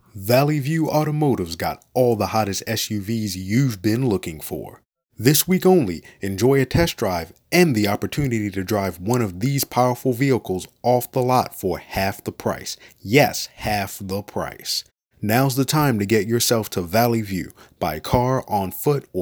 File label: advert narration